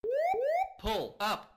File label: gpws whoop pull up